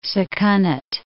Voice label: "Sakonnet River", English